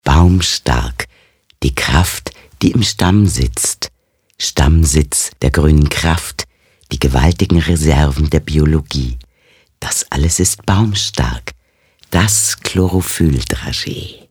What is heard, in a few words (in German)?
Werbung Kraftvoll